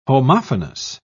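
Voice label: "homophonous", British English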